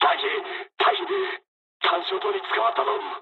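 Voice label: searchlite mood med, speech japanese; War Thunder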